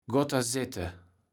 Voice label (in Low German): "gotttersette", kaevels platt